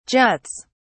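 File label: "juts", British English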